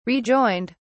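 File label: "rejoined", British English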